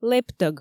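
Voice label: "лэптӧг", Komi, female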